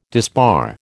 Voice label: "disbar", American